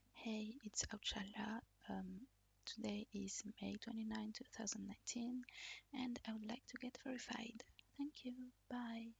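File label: Verification, Female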